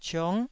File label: "ciong9", taiwanese syllable